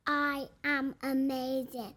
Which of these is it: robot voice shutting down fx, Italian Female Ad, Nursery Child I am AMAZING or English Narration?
Nursery Child I am AMAZING